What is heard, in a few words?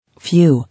"Few", English